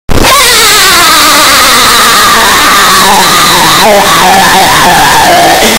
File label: dissapointed earrape; Spongebob